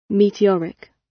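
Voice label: "meteoric" British; Space, the planets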